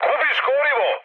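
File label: Serbian tank damaged mood high; War Thunder; speech srb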